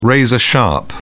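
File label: "razor-sharp", British English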